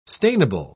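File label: "stainable", British English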